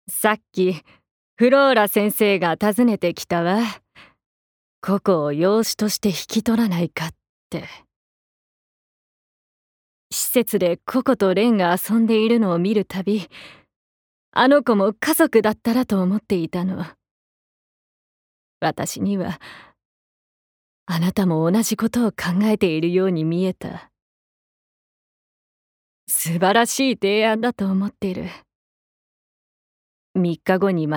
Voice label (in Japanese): 外画